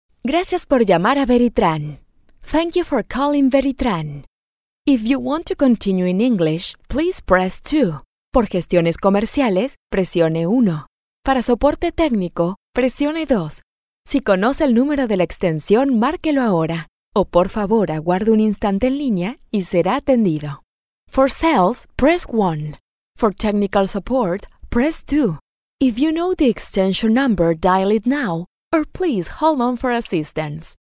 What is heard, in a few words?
IVR Telephony